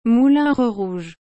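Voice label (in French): "Moulin Rouge", French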